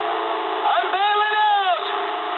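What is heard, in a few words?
English bailout mood low; speech; War Thunder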